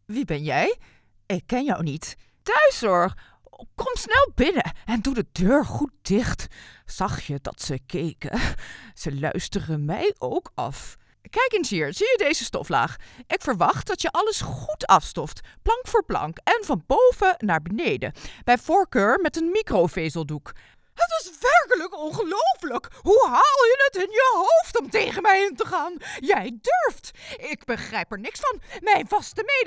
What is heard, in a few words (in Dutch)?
Oudere vrouw Achterdochtige dwingend boos